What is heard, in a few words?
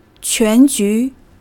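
"quánjú", Chinese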